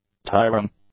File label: "tiring", English; adjectives